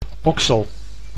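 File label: "oksel", Dutch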